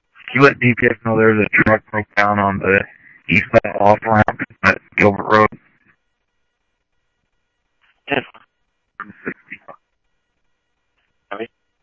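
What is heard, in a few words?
Simulcast police department, scanner audio; Mesa Simulcast; MESA PD 3 Red Mt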